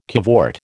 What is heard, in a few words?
"cavort", American